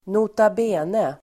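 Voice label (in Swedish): "nota bene", Swedish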